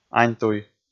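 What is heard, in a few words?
"аньтуй", Komi, male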